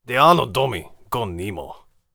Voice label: warrior conversation emotion neutral

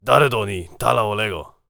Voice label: warrior conversation emotion neutral

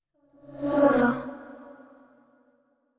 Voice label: sad short; yamiko

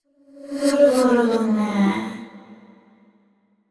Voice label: VO thinking; yamiko

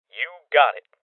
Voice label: Voices Confirmation Male Young; rebel cops 2019

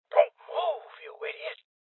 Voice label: Voices Guardian Male Old; rebel cops 2019 gamerip

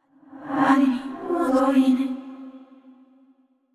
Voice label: sad; yamiko

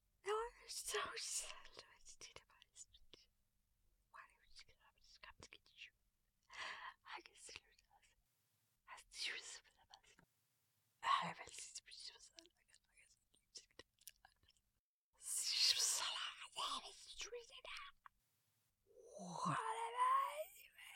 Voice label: whisper

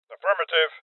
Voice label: Voices Confirmation Male Old; Rebel Cops

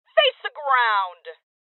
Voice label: Order To Surrender Female, Voices

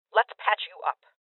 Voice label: Voices Heal Female; rebel cops 2019 gamerip

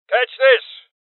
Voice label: Voices Throw Clip Male Old; rebel cops 2019

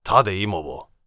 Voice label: warrior conversation emotion neutral

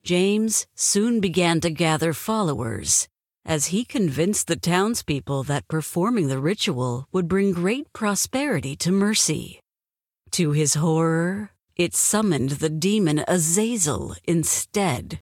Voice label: Opening Narration; Devour; The Town

